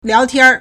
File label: "liao2tian", Chinese